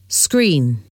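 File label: "screen", English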